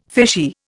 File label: "fishy", Indian